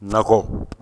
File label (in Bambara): "nakɔ", Bambara; lieux